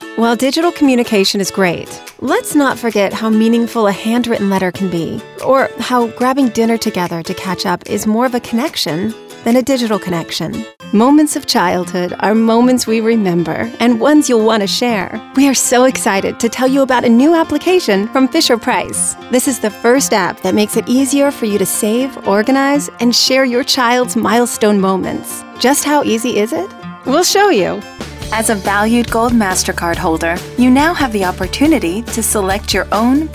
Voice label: corp, narration, women